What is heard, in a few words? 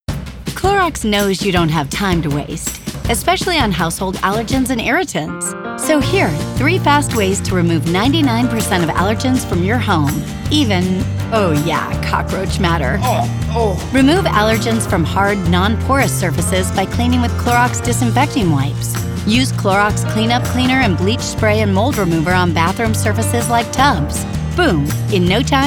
Corporate Explainer; corporate-narration